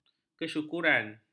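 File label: "kesyukuran", Malay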